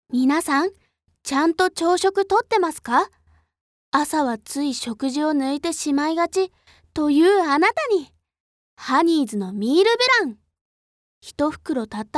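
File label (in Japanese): ナレ, ボイスサンプル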